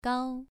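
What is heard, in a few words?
"gao", Mandarin Chinese, second tone, pronunciation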